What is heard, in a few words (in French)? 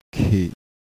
"tchè", ton bas, thailandaise